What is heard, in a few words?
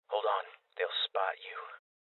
Voices Guardian Male Young